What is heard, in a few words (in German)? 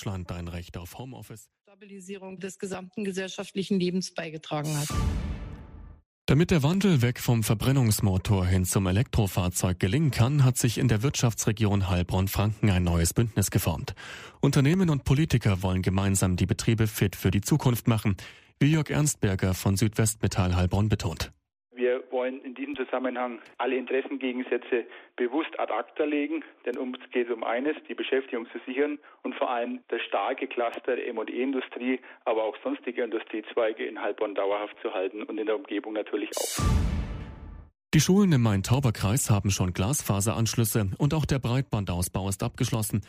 Radio Ton Nachrichten; Bündnis für Transformation